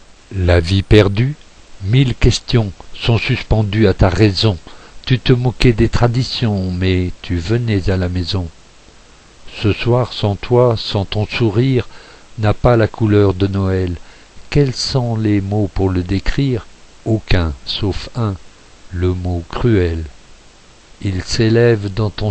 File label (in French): Voice slam en noir et blanc